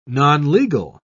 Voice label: "nonlegal", en uk